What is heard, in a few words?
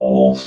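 "holes", robot voice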